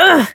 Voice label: Dizzy pain